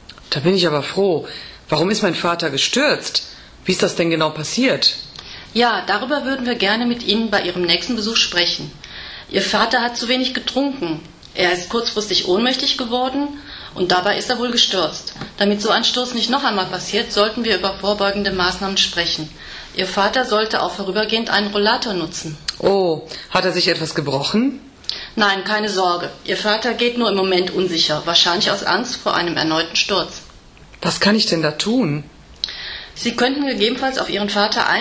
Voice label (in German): telefonat mit frau schmitz